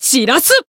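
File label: Voice finish, Japanese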